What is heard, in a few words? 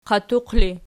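"qatuq" ergative, Archi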